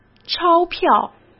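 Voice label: "钞票", Mandarin Chinese; chinese-flashcards; hsk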